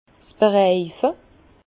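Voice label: "bereife", Alsatian, Bas Rhin, cerclage; vin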